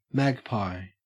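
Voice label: "magpie", Australian English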